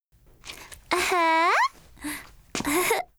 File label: FEMALE Emotion